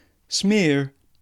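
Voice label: "smear", British English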